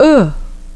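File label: vowel short o, English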